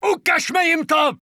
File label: Cze positive; 整队